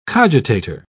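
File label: "cogitator", British English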